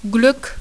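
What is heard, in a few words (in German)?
"glueck" German; ipa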